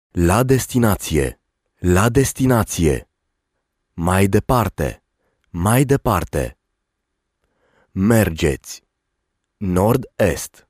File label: male, Romanian